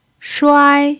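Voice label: "shuai" first tone, chinese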